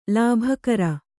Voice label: "ಲಿಬಿಕಾರ", Kannada